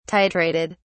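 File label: "titrated", British English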